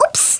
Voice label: OOPS, Speech, Polish; Worms Armageddon